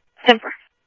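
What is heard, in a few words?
police department, scanner audio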